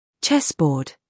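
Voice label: "scandal", American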